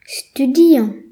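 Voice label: "study", Breton; gcompris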